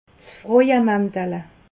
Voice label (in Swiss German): "frauemantel", alsace